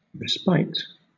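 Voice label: "respite", British English; pronunciations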